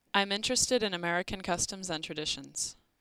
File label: American eng